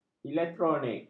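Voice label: "elektronik", Malay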